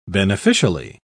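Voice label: "beneficially", English, British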